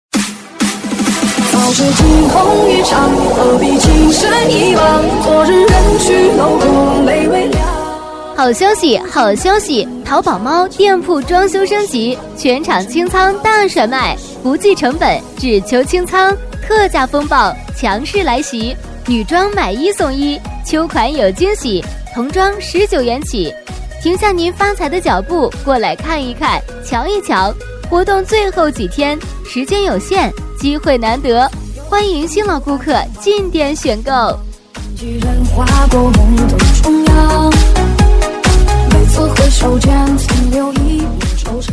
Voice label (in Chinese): 促销 淘宝猫店铺, 女